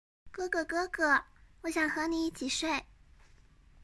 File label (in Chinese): 萝莉音